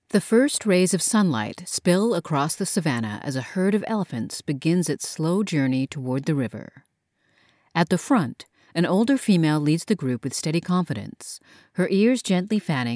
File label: Audio Description Documentary